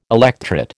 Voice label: "electret", American